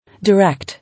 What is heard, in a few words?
"Direct", English